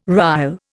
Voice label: "rille", Indian